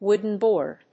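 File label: "wooden board", English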